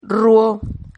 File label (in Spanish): "rruo", silabas